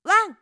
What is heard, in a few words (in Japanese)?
「わん」, 明るい声